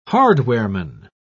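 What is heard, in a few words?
"hardwareman", British English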